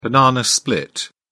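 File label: "banana split", British English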